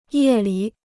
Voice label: "夜里", Chinese

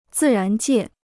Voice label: "自然界", Chinese

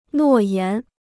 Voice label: "诺言", Chinese

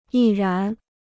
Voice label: "毅然", Chinese

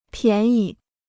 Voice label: "便宜", Chinese

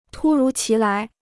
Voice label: "突如其来", Chinese

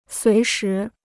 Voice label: "随时", Chinese

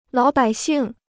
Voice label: "老百姓", Chinese

